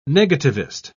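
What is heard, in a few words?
"negativist", British English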